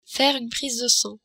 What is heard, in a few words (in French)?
prise de sang, french